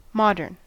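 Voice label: "modern", US English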